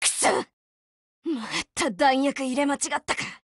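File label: break, Japanese; HK512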